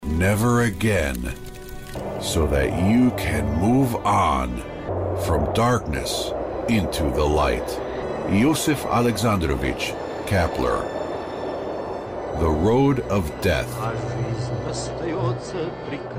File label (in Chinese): 外籍英男 书籍宣传广告 神秘大气